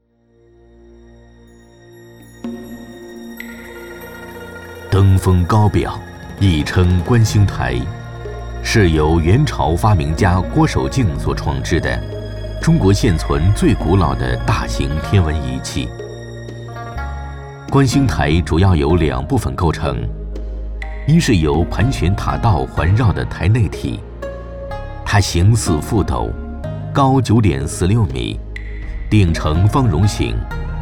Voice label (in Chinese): 男 古风纪录 观星台; 河北计量